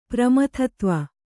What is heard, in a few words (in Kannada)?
"ಪ್ರಮಥತ್ವ", Kannada